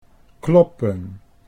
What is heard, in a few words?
"kloppen", Dutch